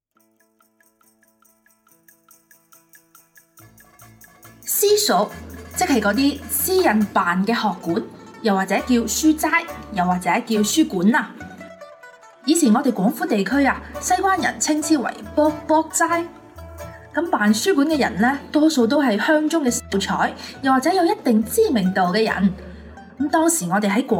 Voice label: 粤语 书馆介绍; 卜卜斋书馆

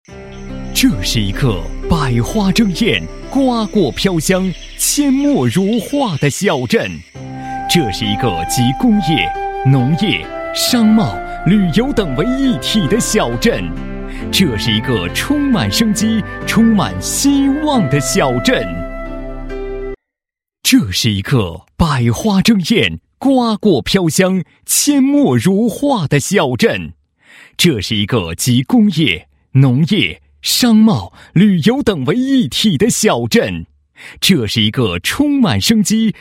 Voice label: 男-宣传广告; 金贵镇广告宣传片

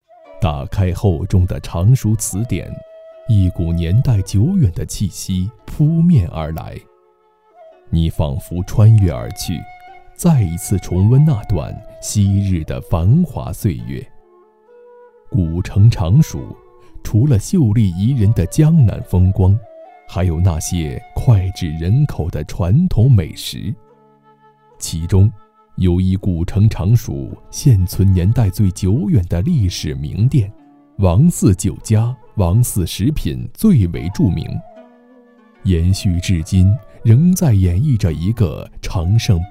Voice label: 男 记录片

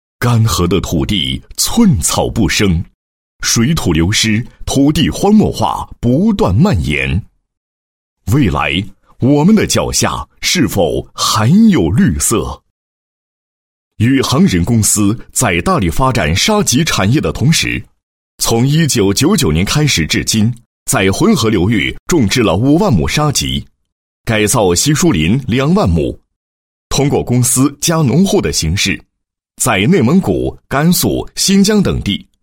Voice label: 男 专题片, 沙棘产业和荒漠化治理专题片; 宇航人

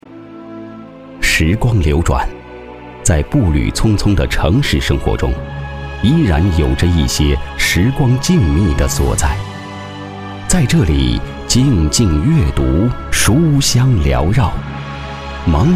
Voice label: 男, 专题; 时光静谧的角落

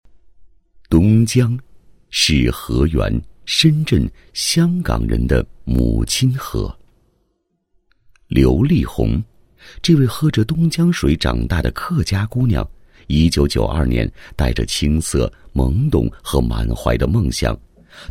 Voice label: 男 人物专题; 中国平安保险业务员

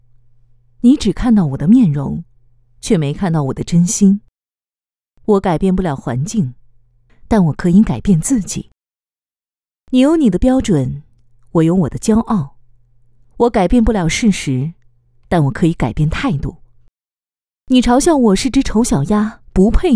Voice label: 角色扮演, 女性励志

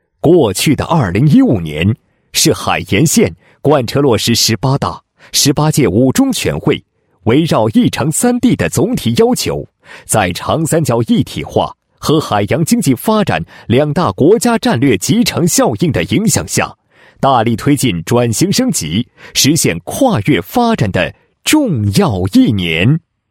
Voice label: 男 工作总结, 配音; 国网某供电公司2015年工作回眸

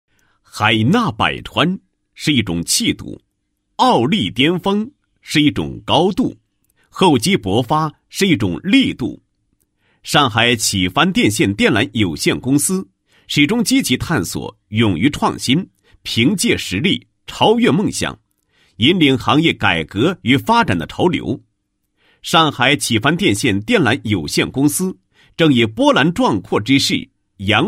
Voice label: 男 企业党建 党建汇报片; 上海起帆电线电缆有限公司

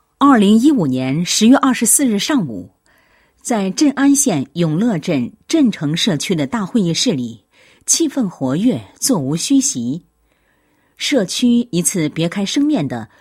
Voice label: 女-工作纪实-某县镇城社区创新社会管理工作纪实, 配音案例

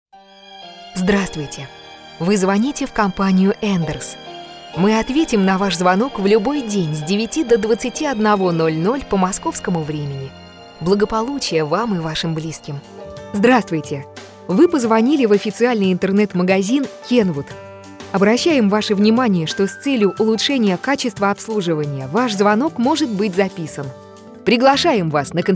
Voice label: 外籍俄语女 IVR Music Sample

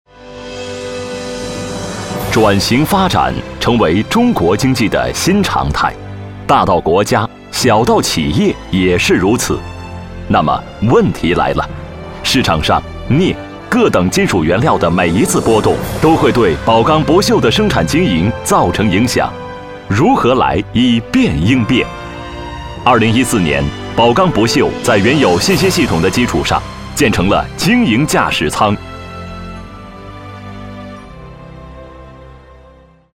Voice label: 男 配音, 国语男声配音; 靓仔专题; 宝钢不锈

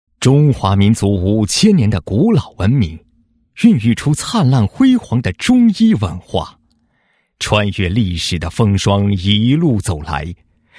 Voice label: 男, 医院专题; 南宁市第七医院介绍

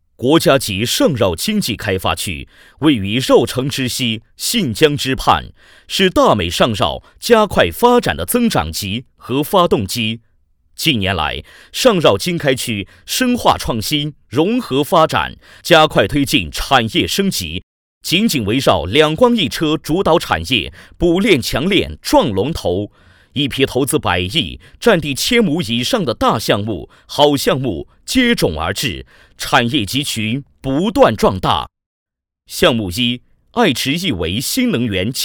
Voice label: 男 工程汇报; 上饶经开区

